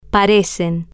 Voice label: "pa-re-cen", Spanish

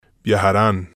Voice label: "viajaran", spanish

